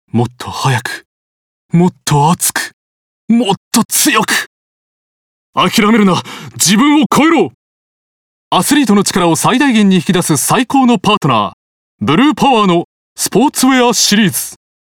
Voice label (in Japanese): スポーツウェアCM力強い